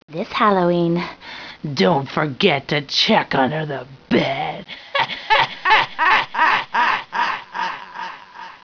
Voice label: Female - This Halloween don't forget to check under the bed